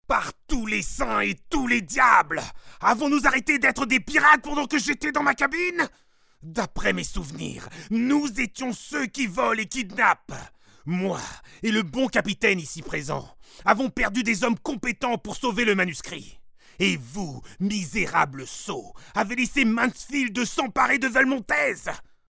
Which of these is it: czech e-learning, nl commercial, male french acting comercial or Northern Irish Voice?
male french acting comercial